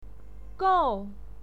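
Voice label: "gou", Mandarin Chinese, fourth tone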